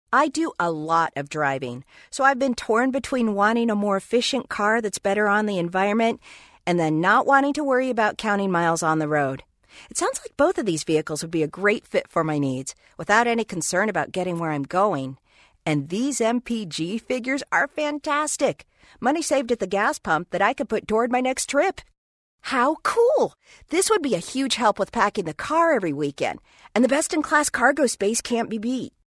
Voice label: eLearning Sample Customer

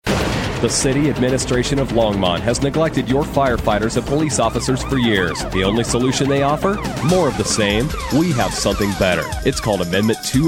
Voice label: Political, en-US

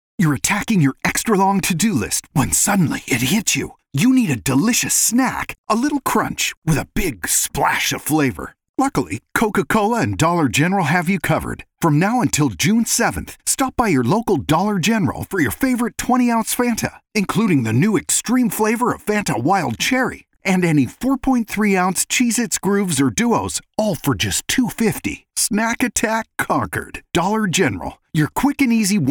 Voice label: PLAYFUL Radio Advert, en-US

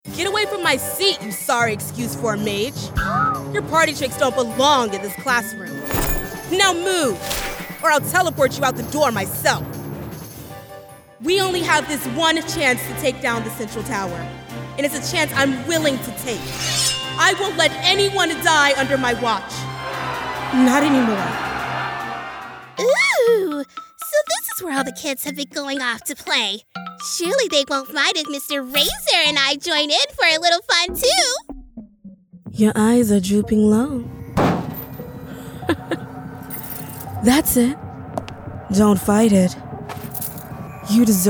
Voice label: Voice Actor, en-GB

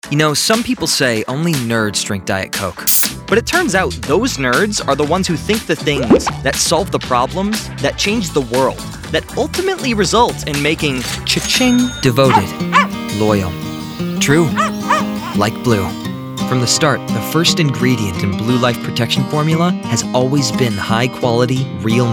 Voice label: Commercial, en-AU; Commercial Demo

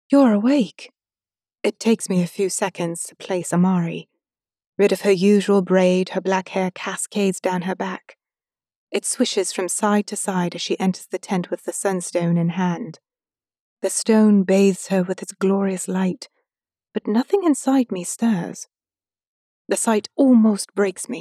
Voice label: 1st Person Fantasy British

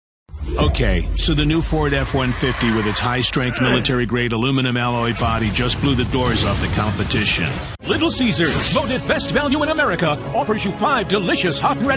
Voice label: Commercial

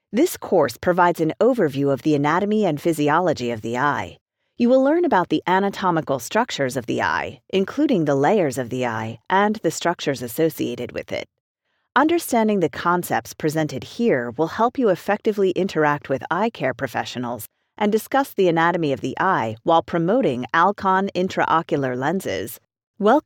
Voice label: Elearning Samples, en-GB

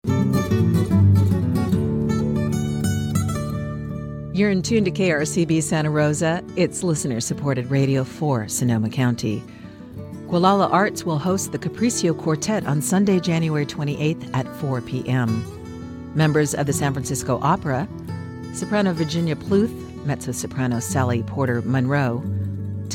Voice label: Promo Imaging; NPR

